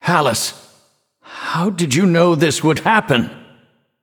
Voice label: Male Nightborne Victory